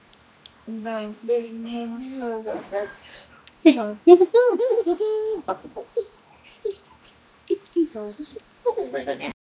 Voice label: one male and one female, conversation